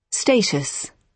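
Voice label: "status", British English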